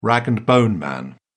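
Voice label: "rag-and-bone-man", British English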